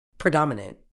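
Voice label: "predominant", medical English, British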